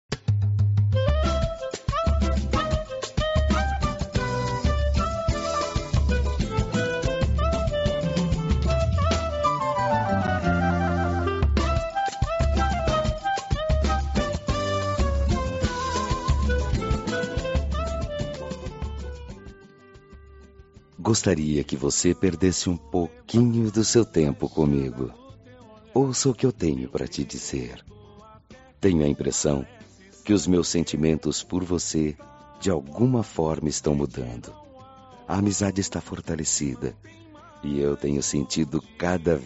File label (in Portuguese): Voz Masculina; Telemensagem de Conquista